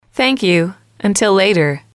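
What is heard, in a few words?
"thank you until later", English, female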